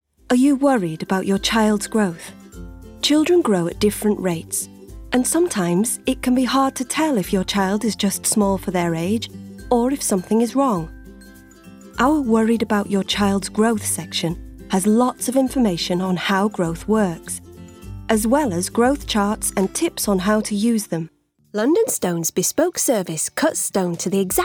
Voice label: Corporate